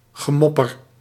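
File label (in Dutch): "gemopper", Dutch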